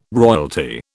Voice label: "royalty", British

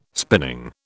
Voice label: "spinning", British

